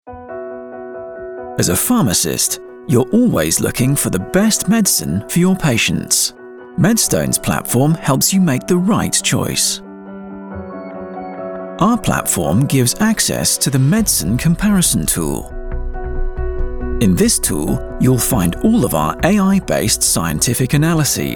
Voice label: pharmaceutical corporate explainer video young UK male voice over; Medstone